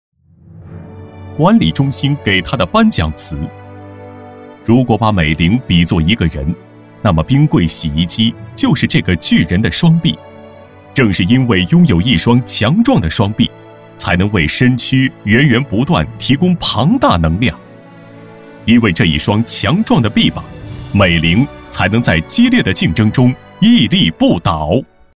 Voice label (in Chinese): 男 颁奖 人物颁奖配音